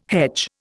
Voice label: "hedge", Indian